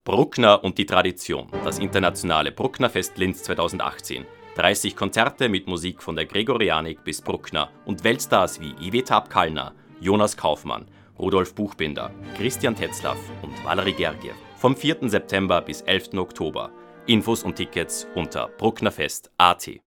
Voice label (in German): werbespot